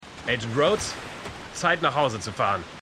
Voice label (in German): Spezialeinheit Mann; Ghosted 2023